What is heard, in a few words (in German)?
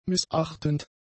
"missachtend", German; adjectives